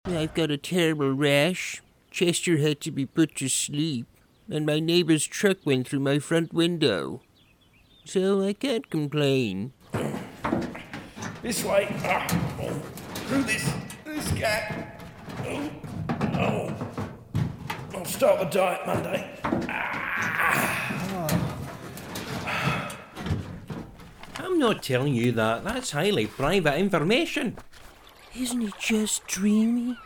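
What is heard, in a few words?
English, UK, male, character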